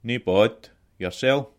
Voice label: fine scots; Nae baud, yersel?